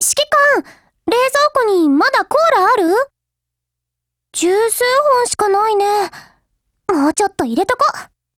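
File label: DIALOGUE Japanese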